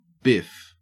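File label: "biff", Australian English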